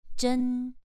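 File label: "zhen2", Chinese pronunciation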